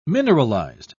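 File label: "mineralized", British English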